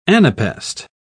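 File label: "anapaest", British English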